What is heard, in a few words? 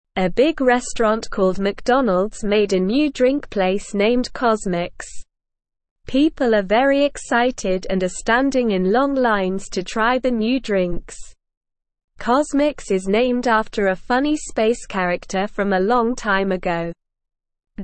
English Lower Intermediate SLOW Reading; McDonalds Opens Fun Drink Place Called CosMcs; English Newsroom